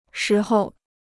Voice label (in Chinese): "时候", Chinese